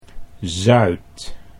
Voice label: "zuid", Dutch

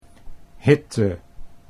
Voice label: "hitte", Dutch

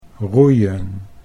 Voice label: "roeien", Dutch

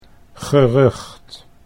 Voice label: "gerucht", Dutch

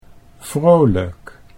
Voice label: "vrolijk", Dutch; 'cheerful, merry'

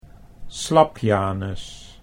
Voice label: "slapjanus", Dutch; a weak, cowardly person

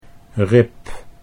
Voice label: "rib", Dutch

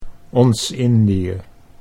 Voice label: "ons Indie", Dutch

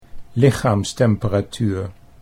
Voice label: "lichaamstemperatuur", Dutch

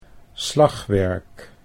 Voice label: "slagwerk", Dutch

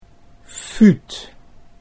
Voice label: "fuut", Dutch

